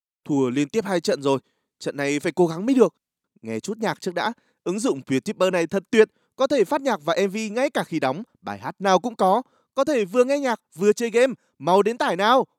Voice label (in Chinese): 越南语男低沉内敛